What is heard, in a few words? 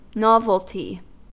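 "novelty", US English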